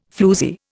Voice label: "floozie", Indian